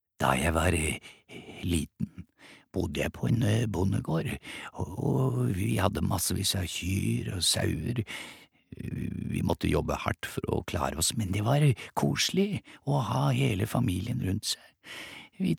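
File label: Norwegian dub man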